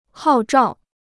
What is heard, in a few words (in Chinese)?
"号召", Chinese